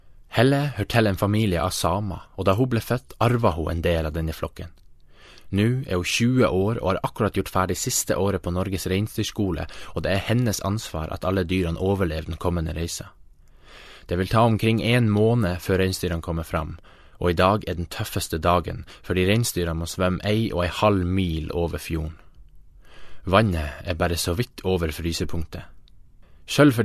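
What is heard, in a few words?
Norwegian; Scandinavian; Male; 20s-30s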